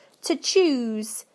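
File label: "to choose", British English; PictureDictionary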